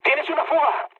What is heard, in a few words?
tank damaged, mood low, speech; War Thunder